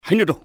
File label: over here, cantonese male; XBOX; enemy